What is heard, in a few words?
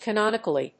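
"Canonically", English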